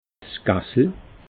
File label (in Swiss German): "gassel", alsace